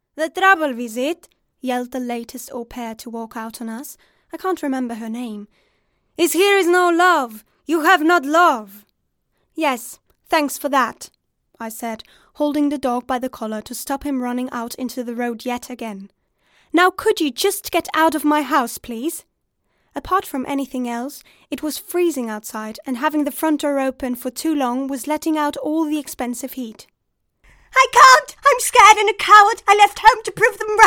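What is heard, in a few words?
DE AccEN Swiss, German Swiss accented English; GoLocalise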